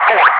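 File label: "for", English speech, Wingman; DCS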